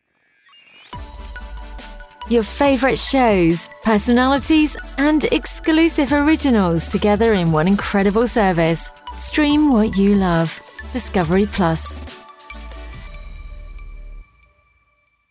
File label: TV Promo; Discovery Plus